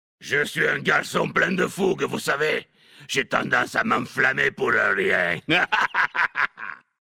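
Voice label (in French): French Homme Blague; Fougue; Nain sombrefer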